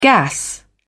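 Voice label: "gas", British English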